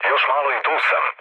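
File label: Serbian near waypoint mood low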